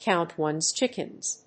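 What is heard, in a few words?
"count ones chickens", English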